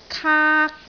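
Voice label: "kaak1", Cantonese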